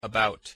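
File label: "about", English pronunciation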